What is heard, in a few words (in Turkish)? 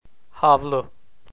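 "havlu", Turkish, male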